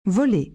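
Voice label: "voler", French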